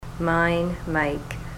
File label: mine, ontario